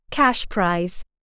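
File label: "cash prize", English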